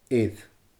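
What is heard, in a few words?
"air", Scottish Gaelic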